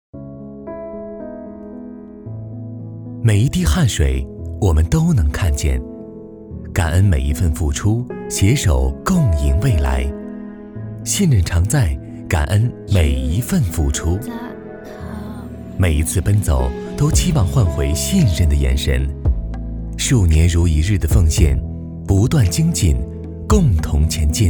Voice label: 质感旁白

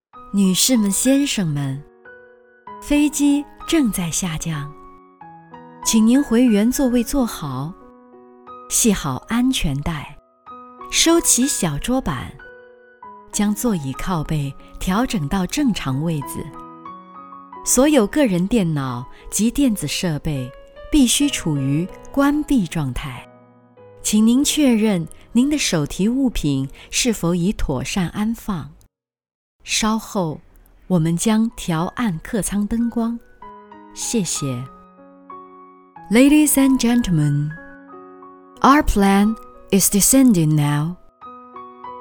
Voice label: 航空服务中英播音; 中国国际航空服务

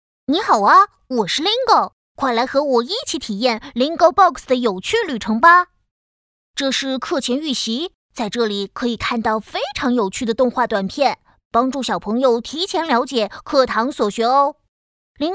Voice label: 男童

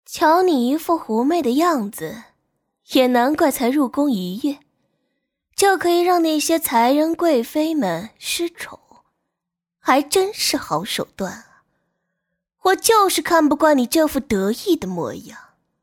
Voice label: 御姐

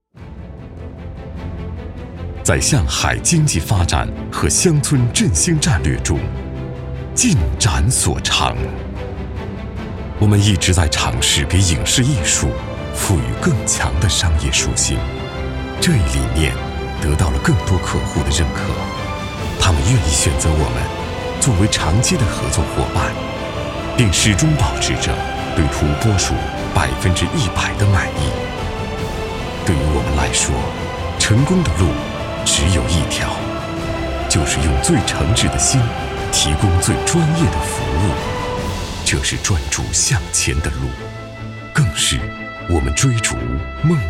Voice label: 沉稳低沉自然有气势

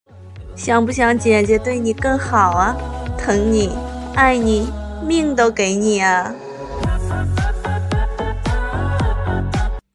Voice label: 御姐

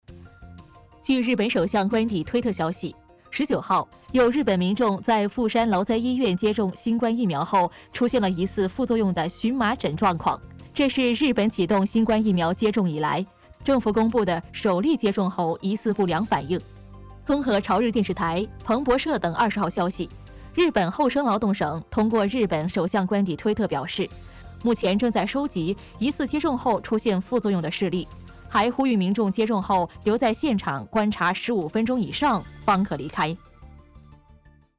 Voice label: 新闻

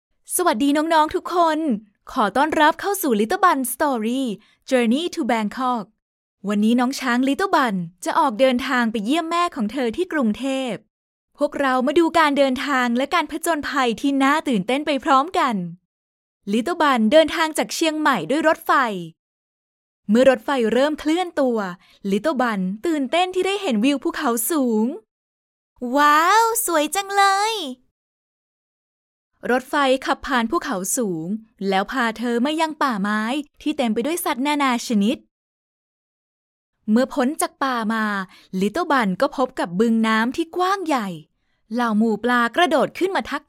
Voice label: 外籍 泰语

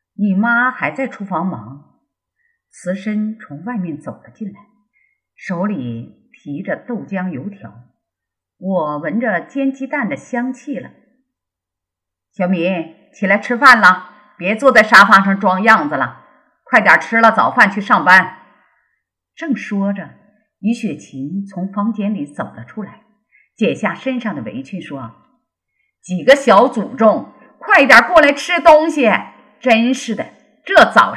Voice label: 有声书; 相遇

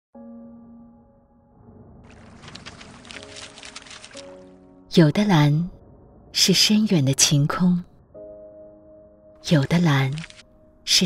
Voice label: 旁白 大气 渐起; 韶关税局这种蓝

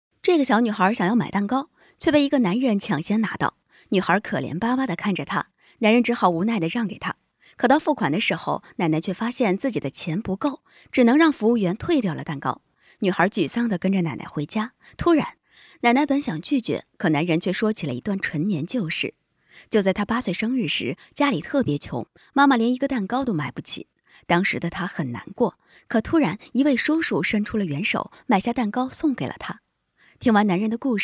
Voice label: 短视频电影解说

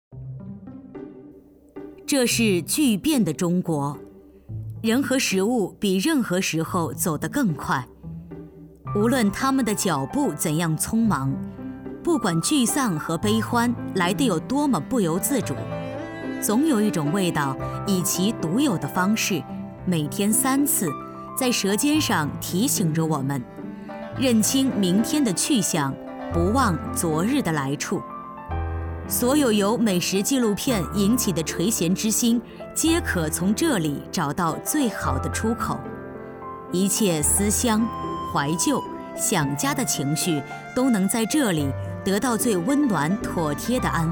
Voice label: 纪录片 美食

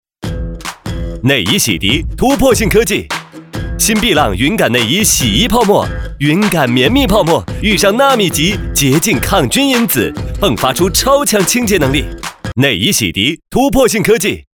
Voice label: 年轻活力; 年轻活力碧浪

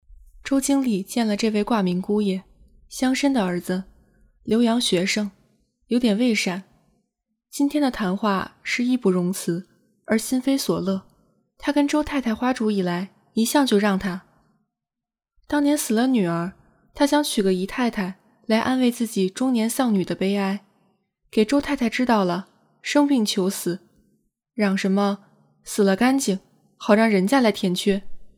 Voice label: 课文配音; 星球杯

